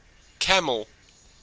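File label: "camel" English, Australian accent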